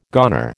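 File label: "goner", American English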